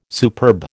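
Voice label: "superaqueous", American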